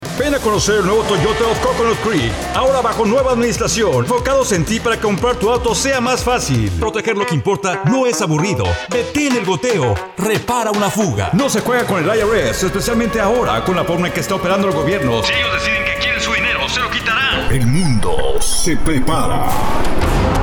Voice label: Male